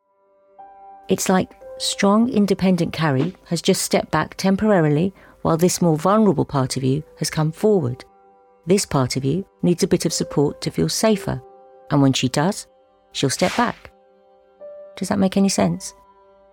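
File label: British English, General; GoLocalise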